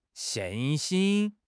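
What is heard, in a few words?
"xin4 xin1", Mandarin Chinese; HSK4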